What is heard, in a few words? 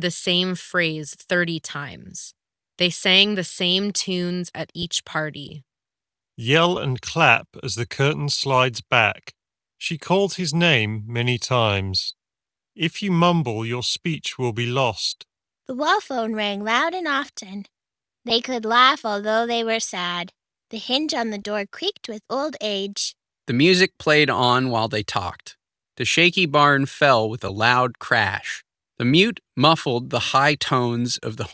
Balanced EQ ideal; SteelSeries Arctis Nova Elite